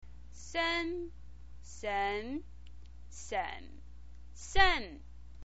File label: "sen", Chinese pinyin voice